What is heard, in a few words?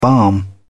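"balm", British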